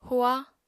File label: "hua", apatani